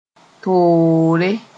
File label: "toore", Mooré; preoccupation